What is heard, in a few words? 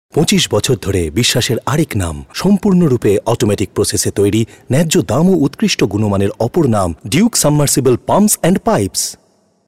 TVC Voice Sample